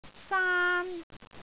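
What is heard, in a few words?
"saan1", Cantonese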